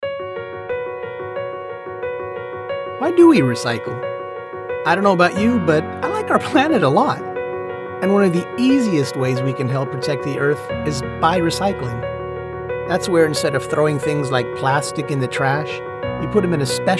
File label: Explainer